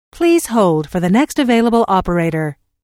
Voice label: Telephone prompt: Please hold for the next available operator, Pre-recorded telephone on-hold message; Recorded Voice Sound Effects; Lynne Music